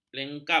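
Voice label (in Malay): "lengkap", Malay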